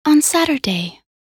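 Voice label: voc en week, English; weekday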